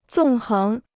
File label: "纵横", Chinese